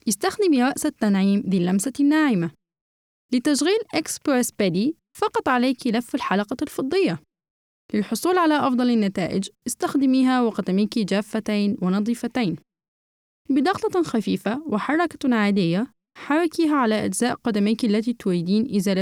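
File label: arabic female commercial, voice demos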